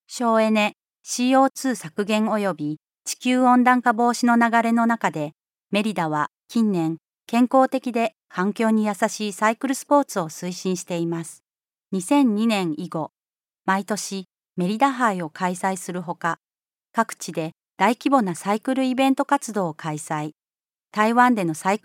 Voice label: japanese female